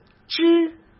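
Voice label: "织", Mandarin Chinese; chinese-flashcards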